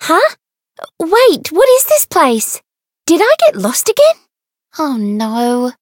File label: Voice story